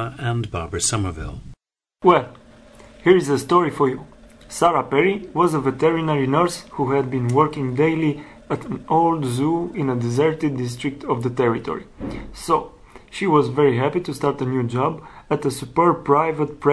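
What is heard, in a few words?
romania, International Dialects of English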